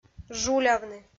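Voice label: "жулявны", Komi, female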